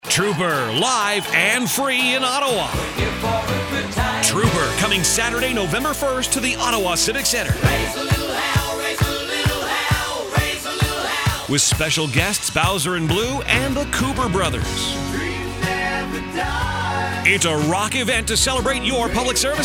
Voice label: Male; CUPE Free Concert